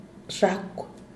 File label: "xhakw", Diaz Ordaz; cucaracha